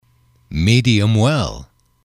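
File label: "medium well", English, male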